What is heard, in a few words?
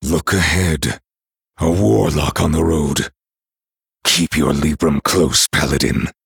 Male Night Elf; Kurtrus